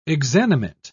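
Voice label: "exanimate", British English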